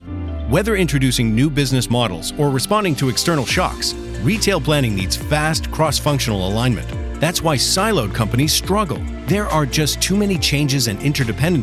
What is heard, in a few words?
B2B Voiceover